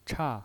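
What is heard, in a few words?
"cha", Mandarin Chinese, fourth tone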